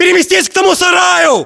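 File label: order cover shed, battlechatter, Russian; localized english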